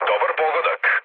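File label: Serbian player killed enemy mood low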